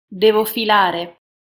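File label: pronunciation it devo filare, Italian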